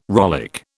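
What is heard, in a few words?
"rollick", British English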